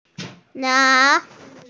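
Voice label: "ran2", Chinese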